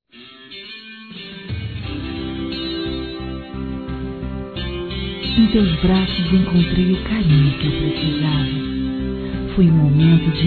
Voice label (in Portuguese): Nossa Noite foi Maravilhosa Feminino, Românticas; Telemensagens